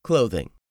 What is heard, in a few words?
"clothing", english; 3000 words